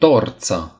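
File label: "torch", Romanian; gcompris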